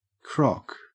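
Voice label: "crock", Australian English; pronunciations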